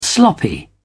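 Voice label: "sloppy", British English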